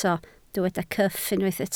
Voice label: "cyff", Welsh